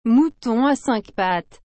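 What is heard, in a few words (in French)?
"mouton à cinq pattes", French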